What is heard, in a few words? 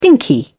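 "dinky", British English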